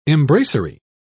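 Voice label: "embracery", British English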